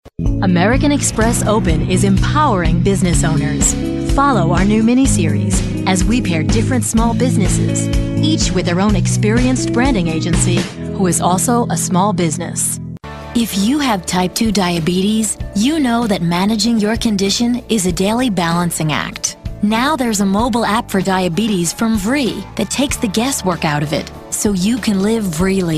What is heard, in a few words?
30s Female Narration